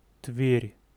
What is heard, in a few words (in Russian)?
"Тверь" произношение